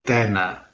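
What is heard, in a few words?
"tenh2", Proto-Indo-European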